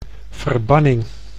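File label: "verbanning", Dutch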